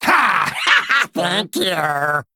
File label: Male Kobold Attack